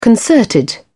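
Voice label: "concerted", British English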